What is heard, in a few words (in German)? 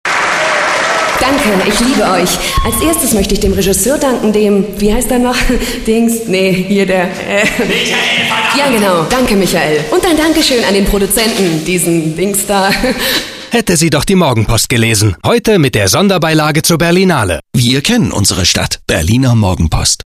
Funkspot; Berliner MoPo